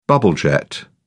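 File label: "bubblejet", English, British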